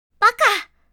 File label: Idiot anime girl character